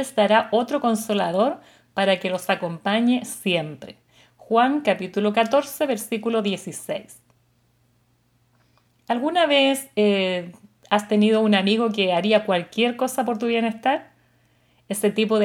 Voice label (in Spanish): devocional